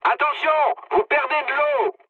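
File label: damaged water, mood high, French; War Thunder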